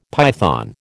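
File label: "python", American English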